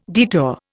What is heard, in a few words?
"detour", Indian